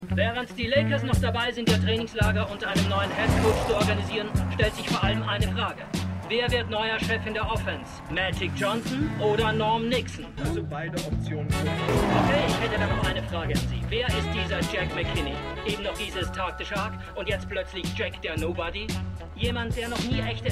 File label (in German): Radiomoderatoren; Winning Time